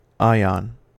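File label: "ion", US English; pronunciations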